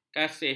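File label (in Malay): "kasih", Malay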